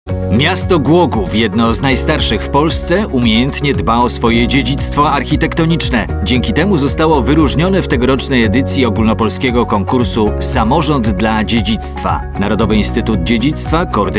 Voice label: spot; Glogow